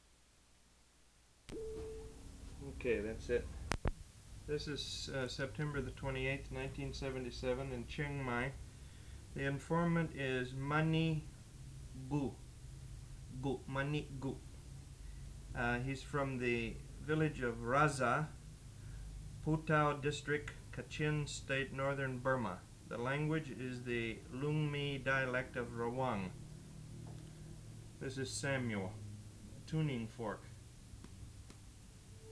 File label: Lisu